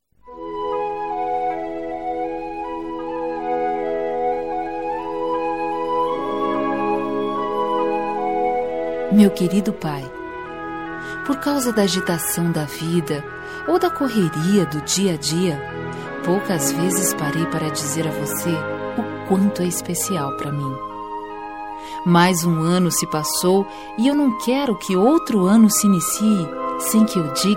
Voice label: Feliz Ano Novo pra Pai, F

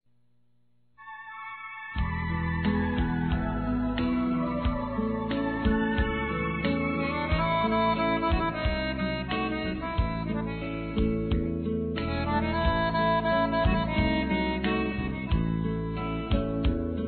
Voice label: Aniv. de Genro, F

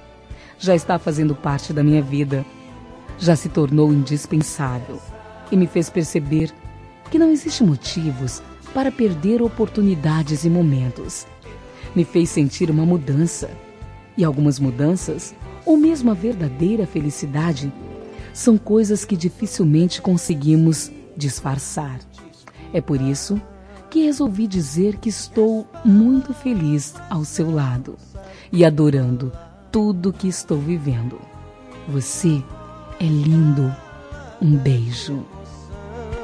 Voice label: Romântica Suave

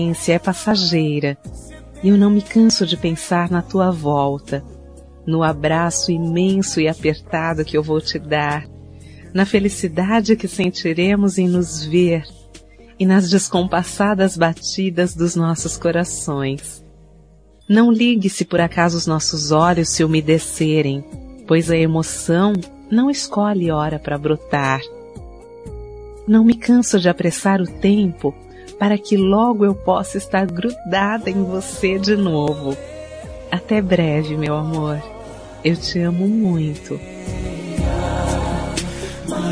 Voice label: Romântica Com Saudades

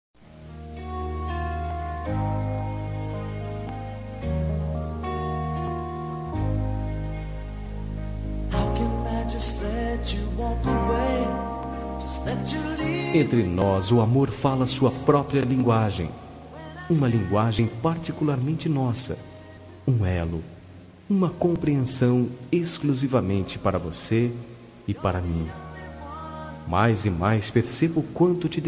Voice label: Romântica Sensual

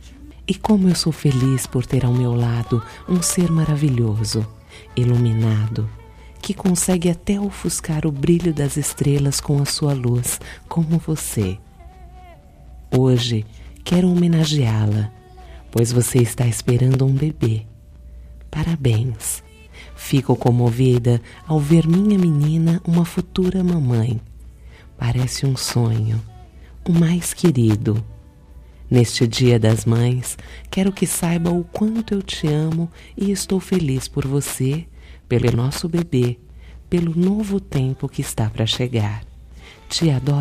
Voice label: Dia das Maes pra Filha Gravida, F

